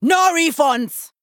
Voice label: Female Troll Attack

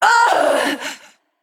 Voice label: Female Naga Death

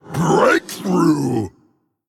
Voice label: Male Troll Undead Attack

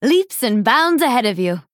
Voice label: Female Dryad Picked

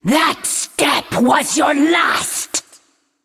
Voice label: Female Naga Centuar Play

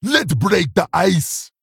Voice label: Male Troll Play

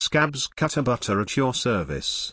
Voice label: Male Gnome

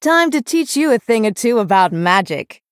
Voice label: Female Night Elf Greetings; Bacon Shop

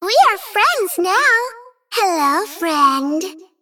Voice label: Female Faerie

